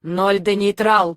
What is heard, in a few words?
girl